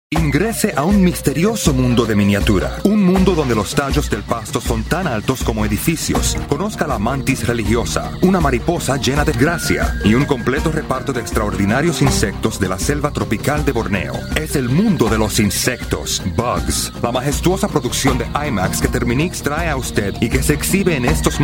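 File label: latam male commercial; voice demos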